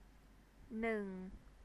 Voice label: "neung", Thai

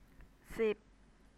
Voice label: "sib" Thai

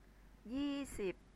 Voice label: "yee sib" Thai

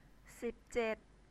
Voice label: "sib jed" Thai

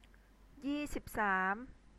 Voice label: "yee sib saam", Thai

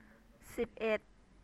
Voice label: "sib et" Thai

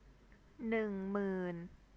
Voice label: "neung muen", Thai

